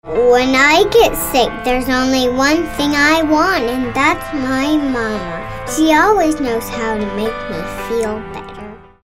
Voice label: thoughtful